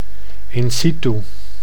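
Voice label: "in situ", Dutch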